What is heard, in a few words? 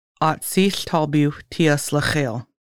Indigenous Peoples Day, Lushootseed